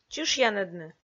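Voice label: "чышъянӧдны", Komi, female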